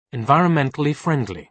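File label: "environmentally friendly", British English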